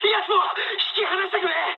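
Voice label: aircraft damaged mood med, speech japanese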